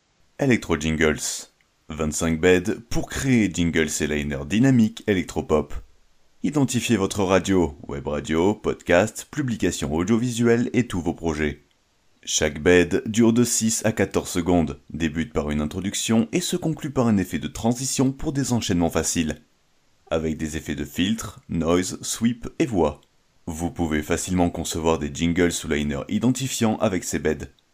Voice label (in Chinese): 法语男声